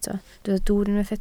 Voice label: "dwwr", Welsh

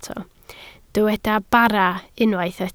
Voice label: "bara", Welsh

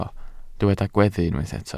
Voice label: "gweddu", Welsh

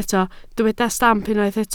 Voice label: "stamp", Welsh

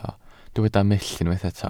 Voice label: "mull", Welsh

